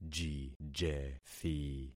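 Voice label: "jijethi", concatenated syllables; Affixing experiment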